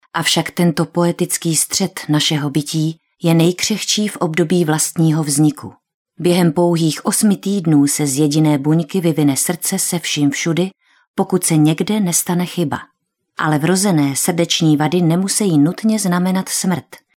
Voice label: female czech voice over narrative